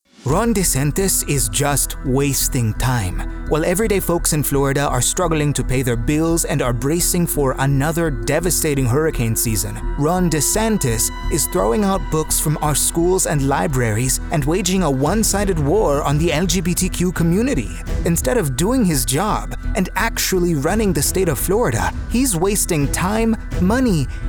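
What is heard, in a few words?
ethnic Spanish; Political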